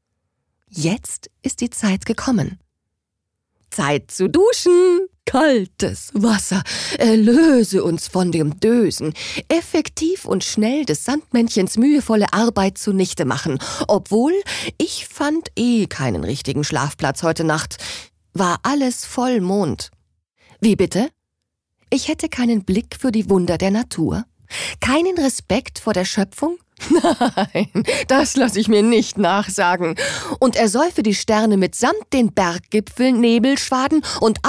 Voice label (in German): Schauspiel Variabel